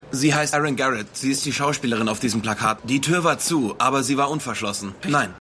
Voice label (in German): Polizist, Stimmproben